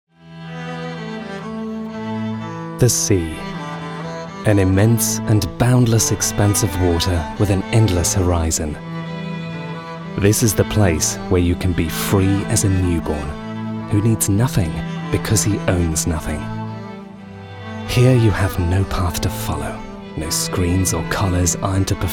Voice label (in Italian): Voce Emozionante; YAMAHA